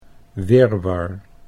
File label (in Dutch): "wirwar", Dutch